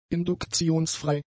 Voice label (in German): "induktionsfrei", German; adjectives